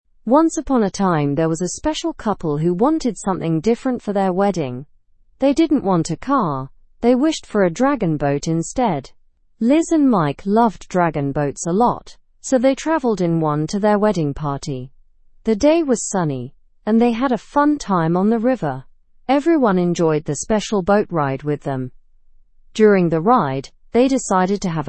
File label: learn english through news beginner normal; wedding couple chooses dragon boat